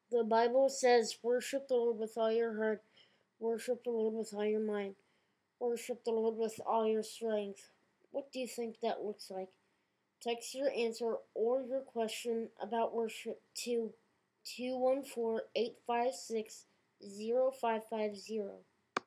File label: the bible says, voice over; Shema Worship